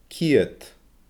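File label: "ciad", Scottish Gaelic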